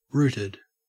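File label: "rooted", Australian English; pronunciations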